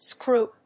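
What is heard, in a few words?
"screwup", English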